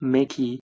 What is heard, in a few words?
"meki", Proto Germanic